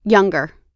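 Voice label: "younger", US English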